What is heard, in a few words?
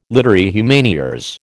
"literae humaniores", American